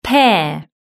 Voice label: "pear", British English

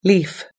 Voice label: "leaf", US English

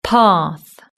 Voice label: "path", British English

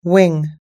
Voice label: "wing", US English

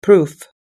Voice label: "proof", US English

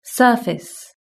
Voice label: "surface", British English

